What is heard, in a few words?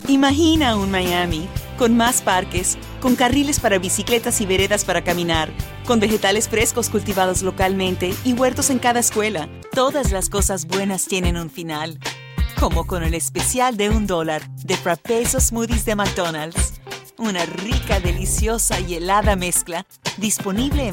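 Spanish Colombian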